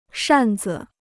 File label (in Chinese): "扇子", Chinese